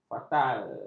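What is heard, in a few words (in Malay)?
"fatal", Malay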